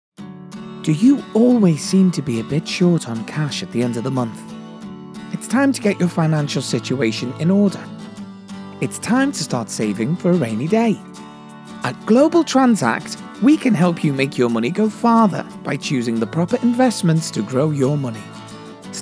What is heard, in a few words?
voice over hard scouse